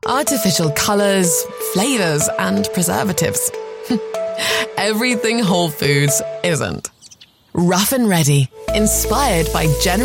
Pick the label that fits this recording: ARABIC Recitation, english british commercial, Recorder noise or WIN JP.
english british commercial